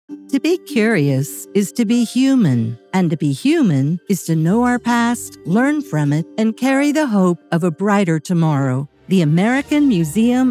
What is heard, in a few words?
Narration